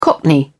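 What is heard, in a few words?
"cockney", British English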